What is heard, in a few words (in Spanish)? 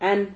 "han", Silabas